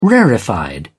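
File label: "rarefied", British English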